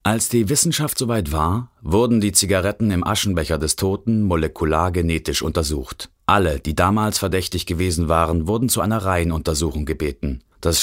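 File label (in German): hörbuch mix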